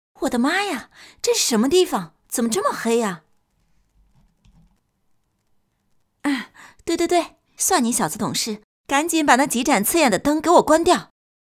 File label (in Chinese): 女 角色 黑女巫